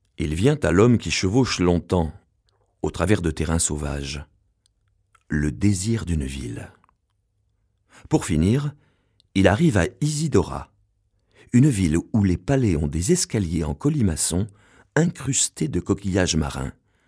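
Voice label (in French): doc, voix off; documentaire; Enregistrements dans la cabine speak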